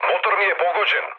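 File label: Serbian damaged engine mood low, speech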